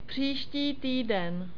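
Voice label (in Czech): "příští týden", czech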